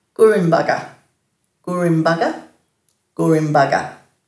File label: "gurimbaga", Dhurga